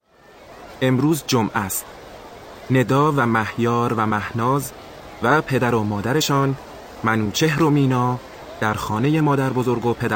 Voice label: Dialogue lesson Farsi